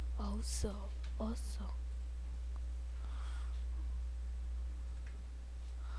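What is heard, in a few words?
"also", spoken word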